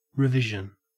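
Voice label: "revision", Australian English; pronunciations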